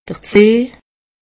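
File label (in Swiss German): "zeh", Haut Rhin; medecine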